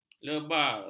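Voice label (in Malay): "lebar", Malay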